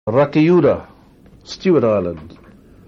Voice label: "rakiura", Maori